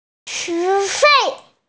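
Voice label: "tuition", hearing impaired; xue2fei4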